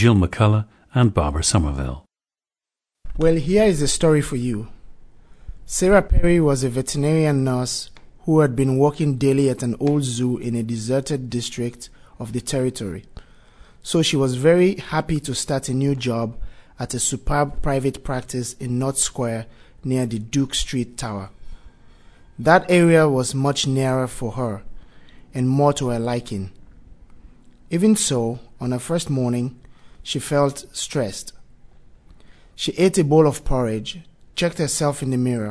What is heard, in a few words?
sierra leone, dialects